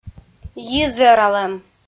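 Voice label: "йизэралӧм" Komi, female; audio dict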